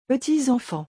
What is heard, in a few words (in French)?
"petits enfants", French